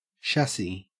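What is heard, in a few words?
"chassis", Australian English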